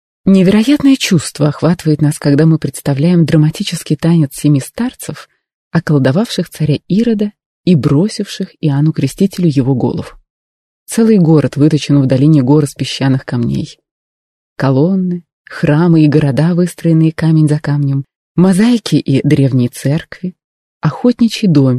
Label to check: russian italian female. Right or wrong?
Right